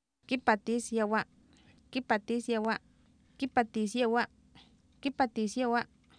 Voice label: kIpatis yAwA, Oapan minimal pairs female; Oapan minimal pairs 1998

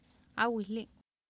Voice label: AawihlI, Oapan minimal pairs female; Oapan minimal pairs 1998

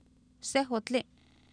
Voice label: sEhotlI, Oapan minimal pairs female; Oapan minimal pairs 1998

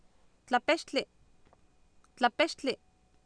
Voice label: "tlapextli" Nahuatl, Oapan, female; Oapan minimal pairs 2000

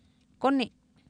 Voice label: "koni", Oapan, female; Oapan minimal pairs 1998

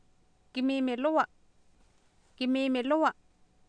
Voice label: "kimiimilowa" Nahuatl, Oapan, female; Oapan minimal pairs 2000

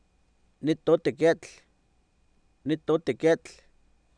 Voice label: "nItOotikEetl", Oapan minimal pairs, male; Oapan minimal pairs 2000

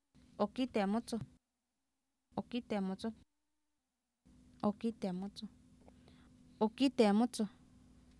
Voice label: ookIiteemOtsoh, Oapan minimal pairs female; Oapan minimal pairs 1998

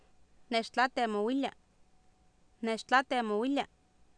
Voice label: neextlAateemowIlia, Oapan minimal pairs female; Oapan minimal pairs 2000

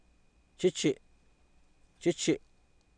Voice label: "chichi", Oapan, male; Oapan minimal pairs 2000

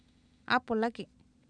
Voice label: AapolAki, Oapan minimal pairs female; Oapan minimal pairs 1998

